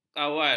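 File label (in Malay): "kawal", Malay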